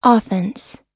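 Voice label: "offense", American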